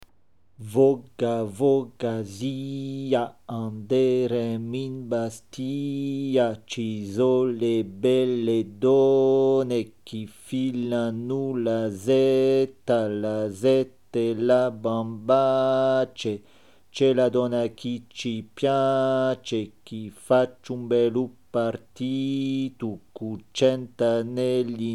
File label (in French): decoupage syllabique